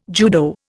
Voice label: "judo", Indian

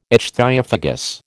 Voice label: "ichthyophagous", American

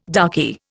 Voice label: "darky", Indian

